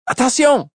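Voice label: Attention Look French, Male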